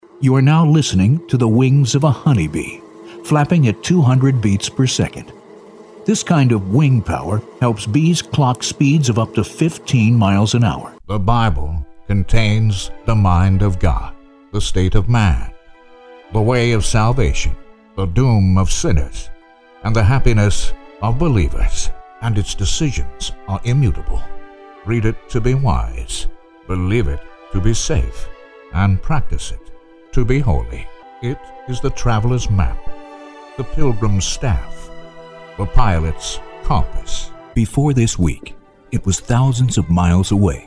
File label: narration